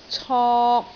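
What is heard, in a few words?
"cok3", Cantonese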